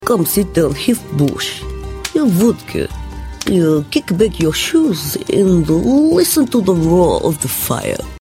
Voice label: Russian Voice

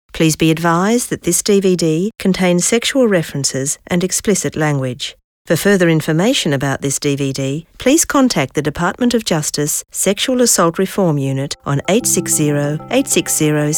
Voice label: corporate

